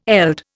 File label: "eld", Indian